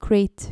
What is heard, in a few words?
"crate", dutch